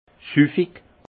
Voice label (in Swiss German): "suffig", alsace; vin